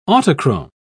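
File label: "autochrome", British English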